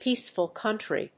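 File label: "peaceful country", English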